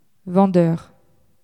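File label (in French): "vendeur" French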